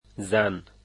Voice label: "woman", dari